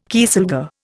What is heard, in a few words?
"kieselguhr", Indian